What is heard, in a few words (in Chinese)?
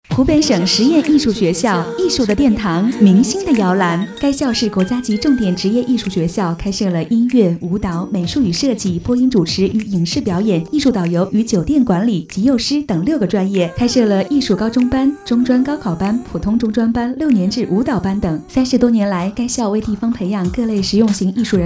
girl youya, guanggao; Chinese